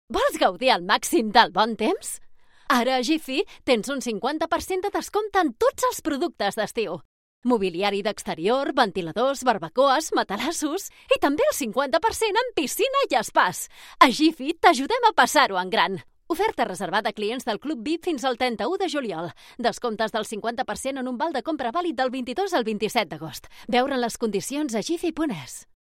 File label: catalan announcements; professional Spanish and Catalan voice actor